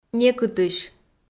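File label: "некытысь", Komi-Zyrian, female; kpv audio dict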